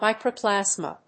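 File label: "micro plasma", English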